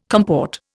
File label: "comport", Indian